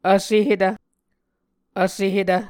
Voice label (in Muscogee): "vsehetv", muscogee